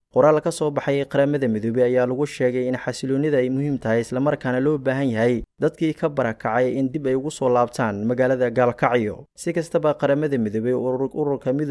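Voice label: Somali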